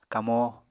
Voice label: "kamó", Apatani

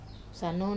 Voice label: "sano" name, Wamesa

